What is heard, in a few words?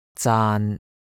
"zaan3", cantonese